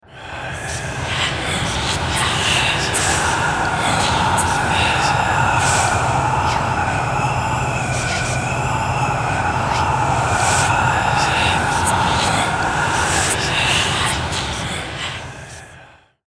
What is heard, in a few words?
VOCAL WHISPERS, Whispers Demonic; Evil Voice; Evil Voices; Production Element Imaging Element Accent Transition